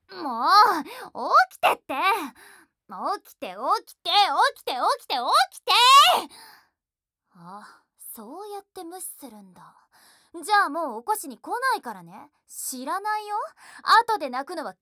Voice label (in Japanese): 目覚ましボイス Level4; 負けヒロインの白ギャルちゃん～頼りになる後輩くんと二人だけの秘密～